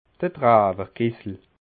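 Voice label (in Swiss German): "drawerkesser", Bas Rhin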